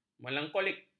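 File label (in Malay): "melankolik", Malay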